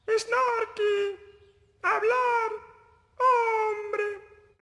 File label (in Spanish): hablar hombre; snorky